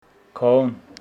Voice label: Homshetsi spring